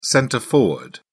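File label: "centre forward", British English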